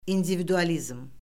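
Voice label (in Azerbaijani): "individualizm", Azerbaijani; Orfoqrafiya Lüğəti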